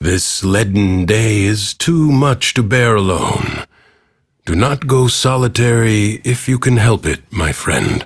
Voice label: Ambient Bark Sad; Duviri; Teshin